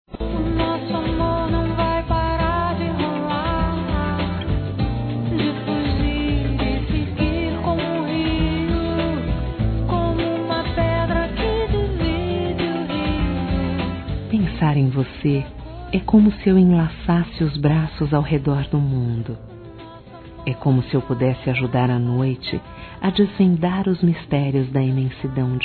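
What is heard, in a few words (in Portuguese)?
despertando para o amor, female; Dia Dos namorados; Telemensagens 2017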